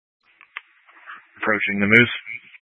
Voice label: Tactical Incidents, scanner

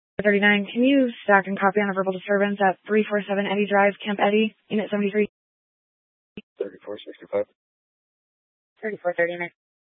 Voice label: Police Dispatch, scanner; Police 1 Dispatch

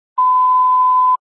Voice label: Grand Junction Fire Department Dispatch

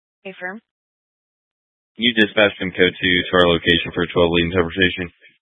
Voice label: Fire Dispatch

